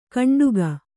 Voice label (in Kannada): "ಕಂಡುಗ", Kannada